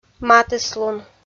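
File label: "матыслун", Komi, female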